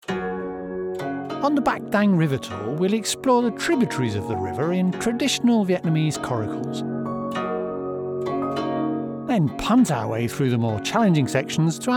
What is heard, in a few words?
river tour with backing music; Vietnam